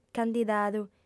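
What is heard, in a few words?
"candidadu", female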